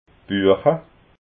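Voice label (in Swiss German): "buecha", Alsatian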